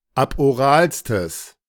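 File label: "aboralstes", German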